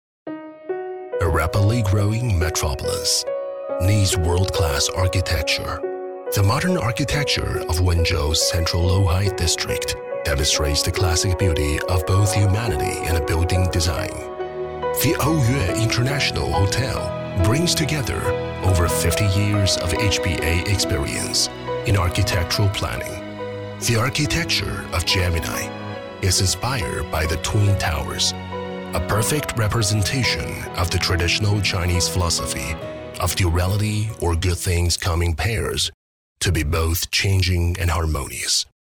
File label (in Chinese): 男英 外语 国内英语 广告感性叙述